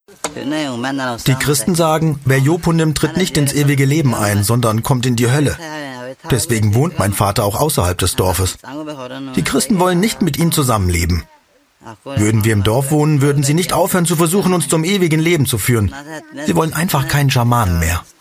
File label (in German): Voice over